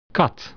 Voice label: "cat", dutch